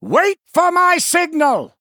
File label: Male Human Idle; Hero; Bolvar; Tamsin Mission7